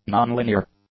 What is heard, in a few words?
"non-linear", english; adjectives